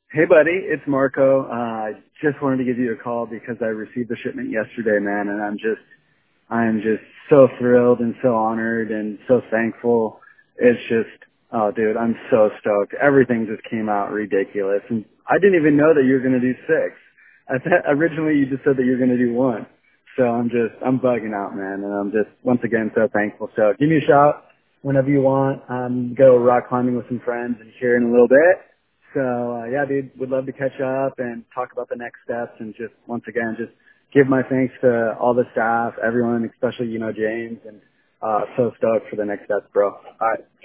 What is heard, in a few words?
Voicemail; Stoked